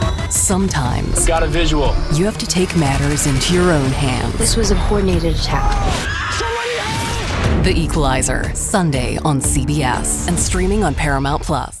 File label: english american movie trailers